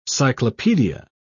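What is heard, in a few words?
"cyclopaedia", British English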